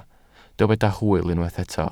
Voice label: "hwyl", Welsh